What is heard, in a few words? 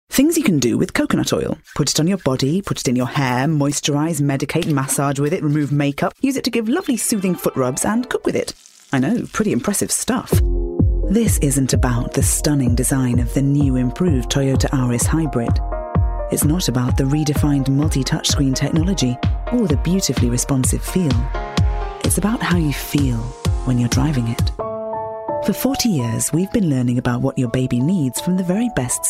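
English UK Commercial; GoLocalise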